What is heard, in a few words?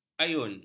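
"ayun", Malay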